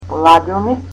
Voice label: "refrigerator", Macedonian

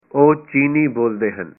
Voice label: punjabi they speak Chinese

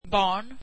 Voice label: swedish child

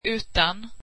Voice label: swedish without

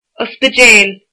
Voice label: hospital, irish

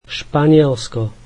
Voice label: slovak Spain

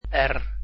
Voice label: "r", Dutch alphabet

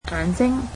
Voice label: cantonese eye

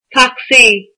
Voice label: taxi, irish

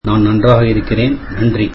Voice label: tamil Im good thank you

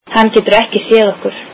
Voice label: icelandic he cannot see us

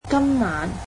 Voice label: cantonese tonight

